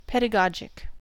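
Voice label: "pedagogic" English, US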